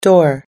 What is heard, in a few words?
"door", us english